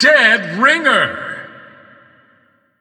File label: announcer; deadringer; Crypt of the NecroDancer